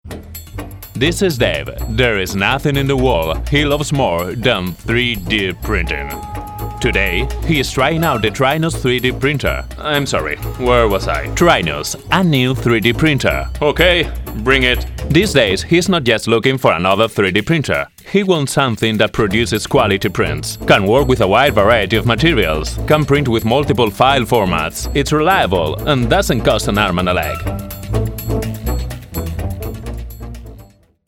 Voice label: Commercial in english